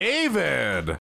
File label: angry